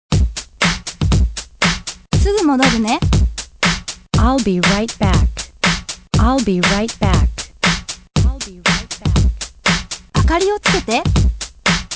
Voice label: chant; SMOCCA; English; ipod hatsuon exercise